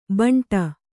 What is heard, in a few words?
"ಭಾಂಡೆ", Kannada